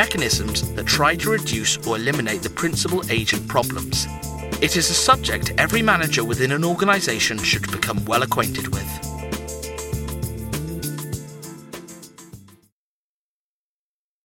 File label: Corporate